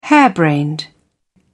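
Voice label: "harebrained", British English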